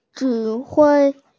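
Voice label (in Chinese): "zhi3hui1", Mandarin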